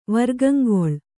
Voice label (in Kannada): "ವರ್ಗಂಗೊಳ್", Kannada